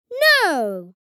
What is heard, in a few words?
"No" vocalization boy child kid